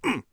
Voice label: defend, Warrior Voice; Warrior Voice Pack